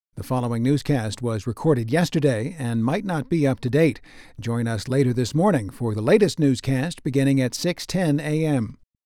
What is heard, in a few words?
overnight newscast